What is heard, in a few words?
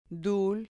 "dul", Spanish syllable